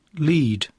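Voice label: "lead", English; poitiers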